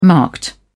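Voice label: "marked", English, British English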